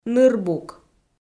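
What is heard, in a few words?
"нырбук", Komi, female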